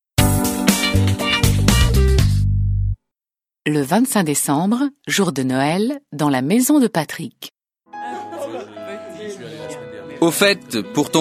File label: French